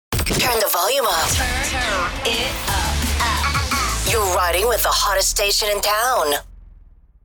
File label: Female radio voice dj sweeper turn the volume up